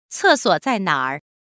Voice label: bathroom mandarin